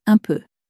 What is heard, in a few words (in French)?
"un peu", French